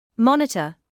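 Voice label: "monitor", British English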